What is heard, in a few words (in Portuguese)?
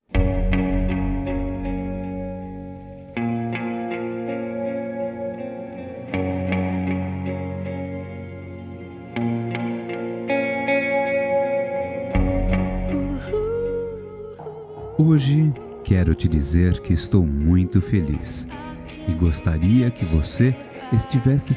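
DIA DOS NAMORADOS, Masculino; Telemensagens 2017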